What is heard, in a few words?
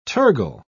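"tergal", British English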